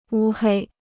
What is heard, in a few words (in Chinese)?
"乌黑", Chinese